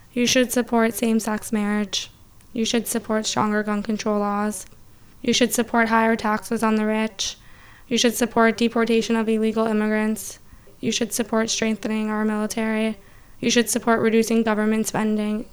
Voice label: female HIGH, voice pitch; voice pitch 2018